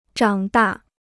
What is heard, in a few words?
"长大", Chinese